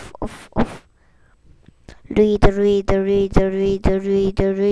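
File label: "lead", spoken word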